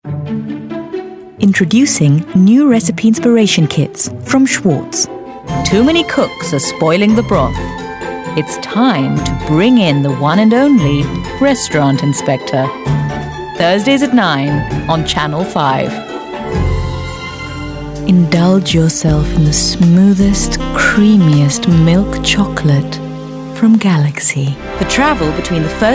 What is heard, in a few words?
Commercial Mix